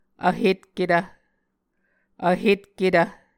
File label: "vhetketv", Muscogee